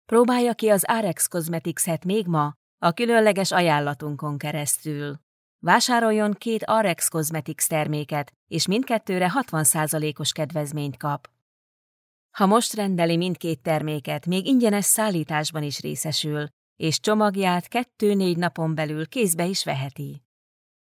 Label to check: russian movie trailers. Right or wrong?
Wrong